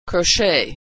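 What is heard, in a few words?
"crochet", American English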